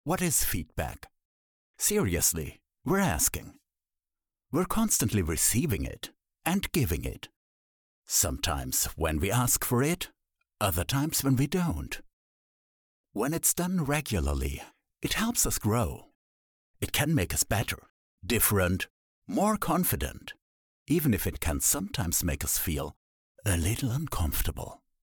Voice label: male german voice over talent english